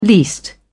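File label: "least", English, British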